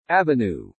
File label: "avenue", English